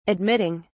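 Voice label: "admitting", British English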